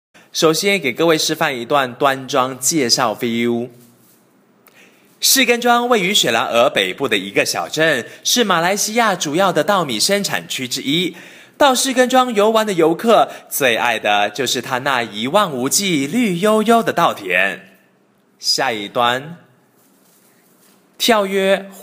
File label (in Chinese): clean read 节目旁白